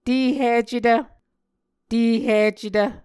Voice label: "tehicetv", Muscogee